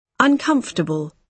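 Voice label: "uncomfortable", British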